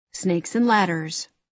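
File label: "snakes and ladders", American English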